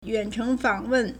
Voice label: "yuan3cheng2fang3wen4", Chinese